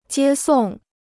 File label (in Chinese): "接送", Chinese